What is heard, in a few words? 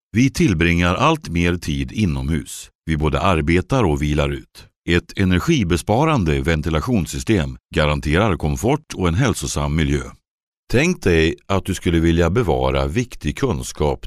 Swedish male, medical IVR